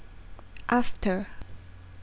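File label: "after", Thai, female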